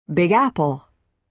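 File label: "big apple", American English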